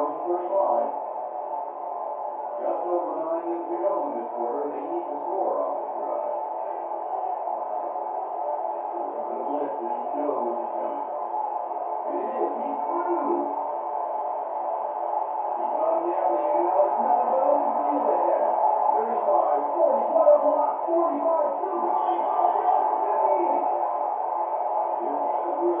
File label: Tv Football Game Ann